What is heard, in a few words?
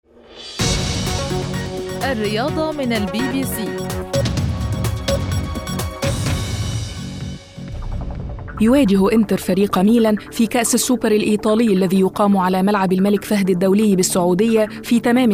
arabic sports